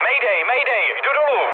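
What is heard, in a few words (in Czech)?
Czech aircraft destroyed, mood medium; War Thunder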